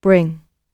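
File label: "bring", British English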